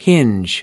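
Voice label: "hinge", American English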